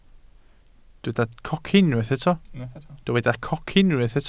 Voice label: "cocyn", welsh

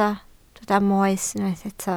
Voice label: "moes", Welsh

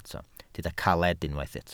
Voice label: "caled", Welsh

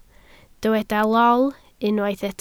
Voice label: "lol", Welsh

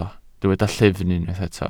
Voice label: "llyfn", Welsh

